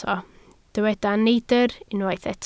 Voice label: "neidr", Welsh